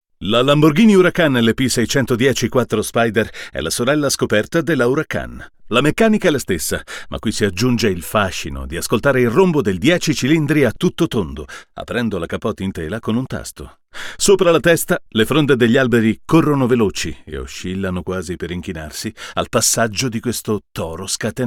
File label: U87AI VS S-87 DIY